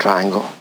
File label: LoFi Words, LoFi Vocals